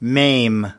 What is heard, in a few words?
"maim", us